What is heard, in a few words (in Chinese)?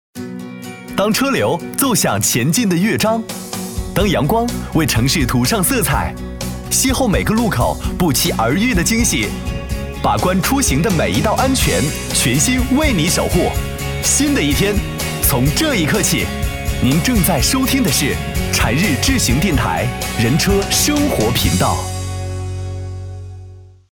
男, 电台片花, 年轻活泼, 汽车; 长安汽车